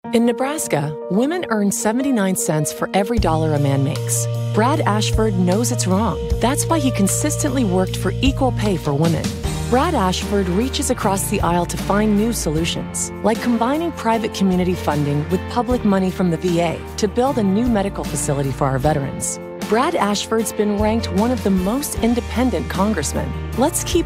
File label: Voice Over; Brad Ashford Omaha